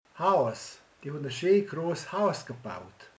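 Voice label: "ház", Hungarian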